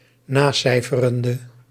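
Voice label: "nacijferende", Dutch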